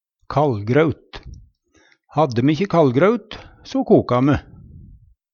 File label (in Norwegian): kallgraut, Numedalsmål; Hushald, mat, drikke